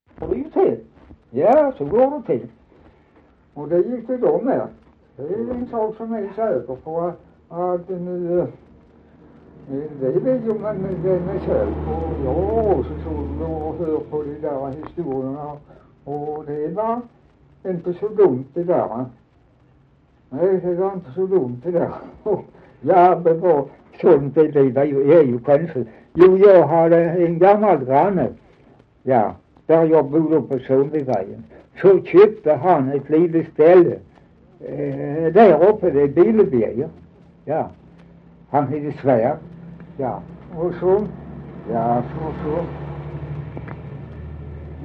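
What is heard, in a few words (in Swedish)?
Dalby Skåne, dialekter; Folkminnen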